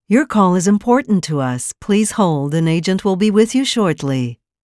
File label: please hold, ivr